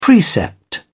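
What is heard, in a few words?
"precept", British English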